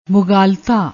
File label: "mugaalta", Punjabi